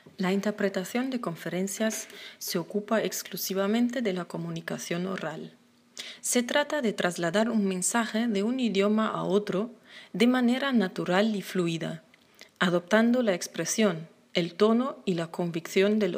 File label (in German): Sprechprobe Es